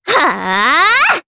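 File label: Vox Casting, Japanese; kingsraid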